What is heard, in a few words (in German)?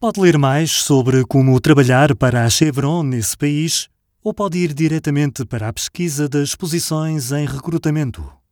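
Portuguese, Kommentar Freundlich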